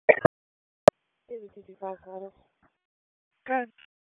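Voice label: Raleigh Police Northeast District Dispatch; wake; p25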